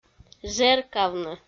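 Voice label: "жеркавны", Komi, female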